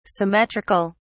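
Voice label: "symmetric(al)", English, British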